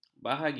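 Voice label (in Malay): "bahagi", Malay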